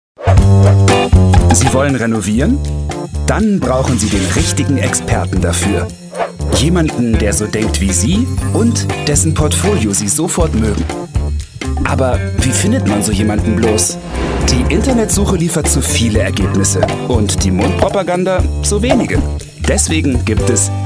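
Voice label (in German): imagefilm, deutsch männer; sprecher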